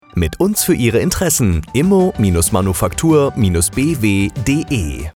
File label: reminder radio spot